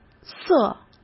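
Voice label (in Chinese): "色", Mandarin Chinese; hsk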